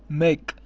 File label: "mek" Armenian